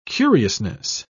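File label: "curiousness", British English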